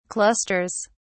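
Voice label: "clusters", English, British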